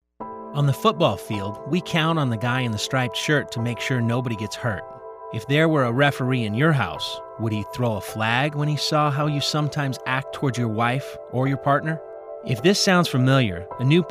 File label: Radio Ad